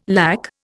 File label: "lack", Indian